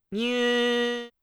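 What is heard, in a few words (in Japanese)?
"みゅ", Japanese syllable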